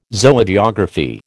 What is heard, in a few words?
"Zoogeography", American